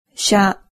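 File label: "sha", Japanese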